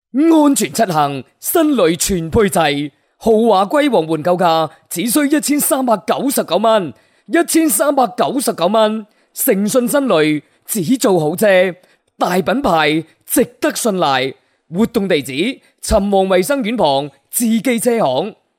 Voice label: 男粤 广告 促销 激情; 粤语; 治记车行

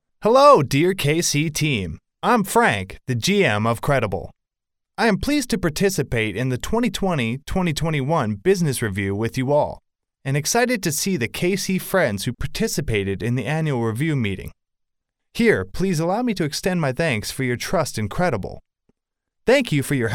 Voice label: 外籍男英 外语 美式英语; 飞碟说风格

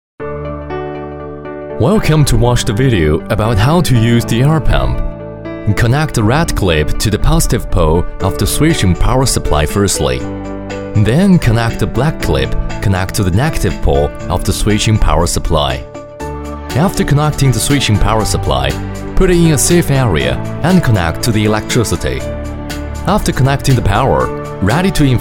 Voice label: 男英 多媒体 培训课件 操作视频讲解; 英语

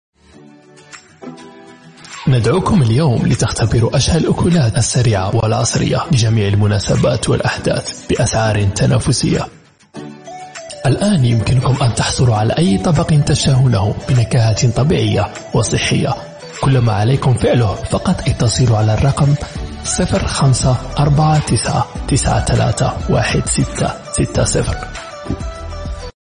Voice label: 阿拉伯语男 外语 小语种 轻快

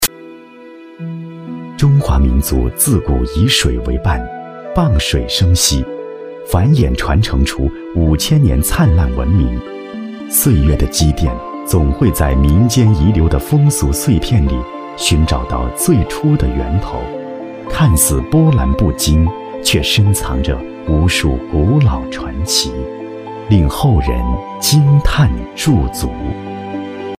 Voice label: 纪录片 民俗纪录片 高跷, 男国; 凌源高跷

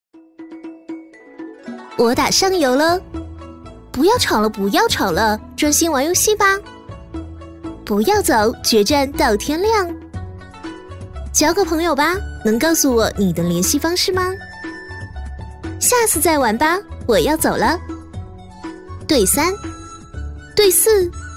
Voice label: 动画 游戏 台湾腔麻将, 女

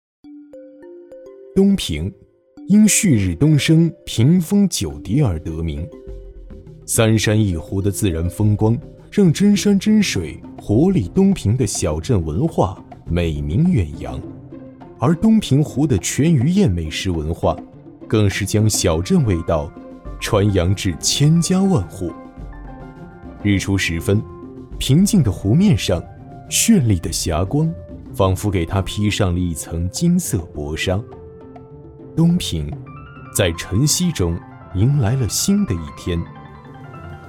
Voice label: 纪录片 舌尖 舌尖风, 男

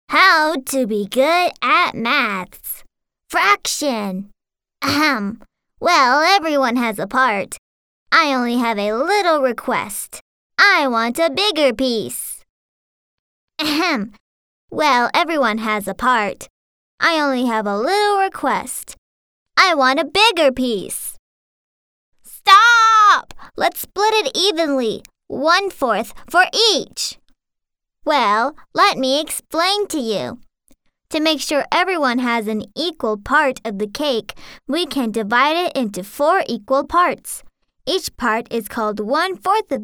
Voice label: 美式英语 卡罗尔主角男童, 外语

